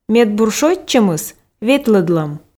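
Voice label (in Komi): медбур шойччӧмыс ветлӧдлӧм, Komi, female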